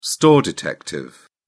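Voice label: "store detective", English, British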